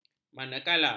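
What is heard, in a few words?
"manakala", Malay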